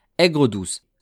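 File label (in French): "aigre-douce", French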